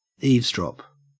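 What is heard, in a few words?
"eavesdrop", Australian English; pronunciation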